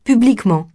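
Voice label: "publiquement", French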